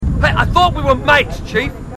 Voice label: marines, aussie; h2dialogue

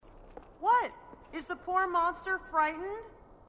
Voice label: female marine

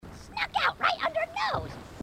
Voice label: grunt; h2dialogue; grunt crazy

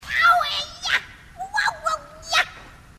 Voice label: grunt; h2dialogue; grunt crazy

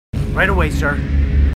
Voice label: marines, marine timid; h2dialogue

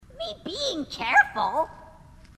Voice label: grunt; h2dialogue; grunt crazy

